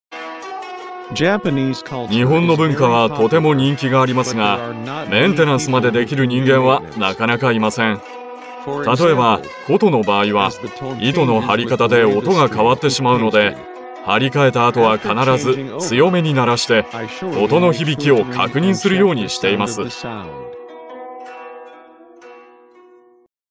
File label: vo nomal